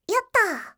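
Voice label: "yotto", Japanese; kakegoe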